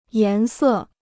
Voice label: "颜色", Chinese

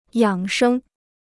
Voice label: "养生", Chinese

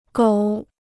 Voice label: "狗", Chinese

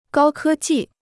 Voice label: "高科技", Chinese

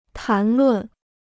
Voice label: "谈论", Chinese

